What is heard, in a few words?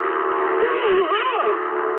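English damaged pilot mood low; War Thunder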